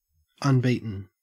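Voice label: "unbeaten", Australian English; pronunciations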